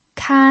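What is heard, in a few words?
"kan" first tone, pinyin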